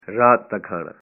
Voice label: "dinner", punjabi